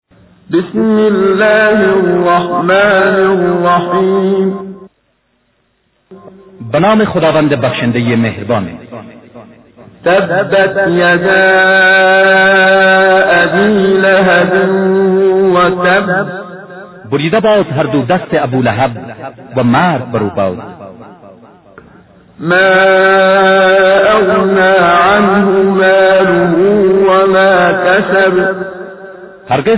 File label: Surah Farsi; Menshay Translation